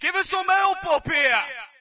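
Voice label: British backup; player; Source Engine; dod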